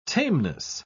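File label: "tameness", British English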